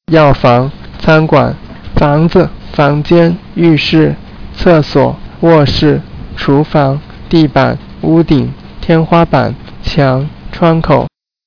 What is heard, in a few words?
chinese